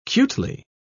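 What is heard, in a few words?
"cutely", British English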